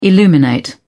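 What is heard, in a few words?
"illuminate", British English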